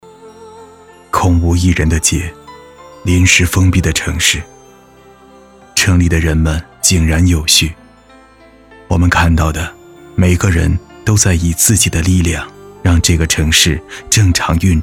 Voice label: 内心独白 城市 抗击疫情

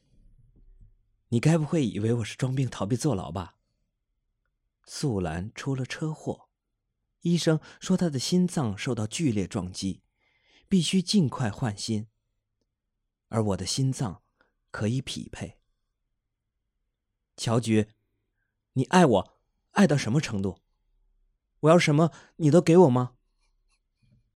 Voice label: 试音男声线

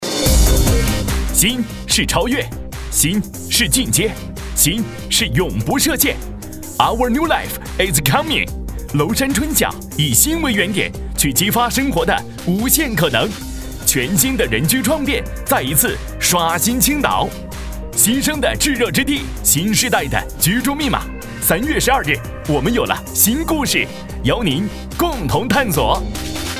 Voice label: 年轻广告

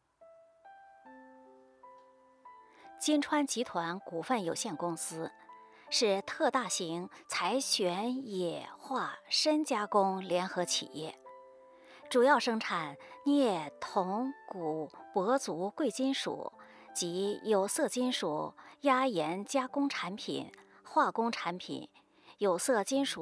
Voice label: 企业宣传片; 金川公司

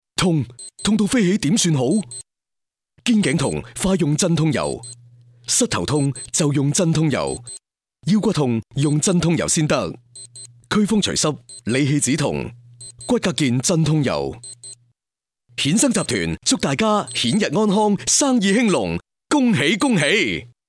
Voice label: 粤语广告

